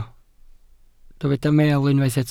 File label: "meel", Welsh